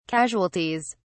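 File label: "casualties", British English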